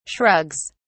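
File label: "shrugs", British English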